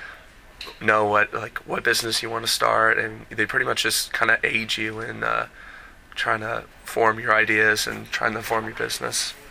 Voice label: interview with entrepreneurship major